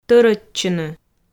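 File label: "тӧрӧдчыны", Komi, female; audio dict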